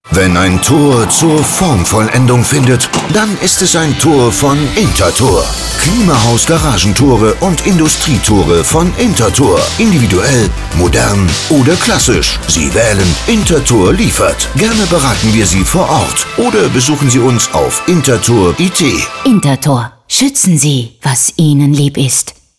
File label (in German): Radiospot; Intertor Garagentore; KLIMAHOUSE